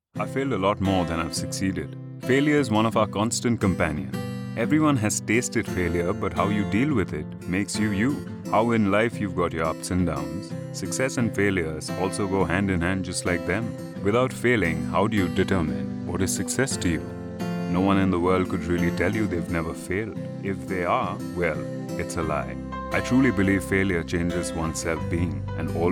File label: english indian narration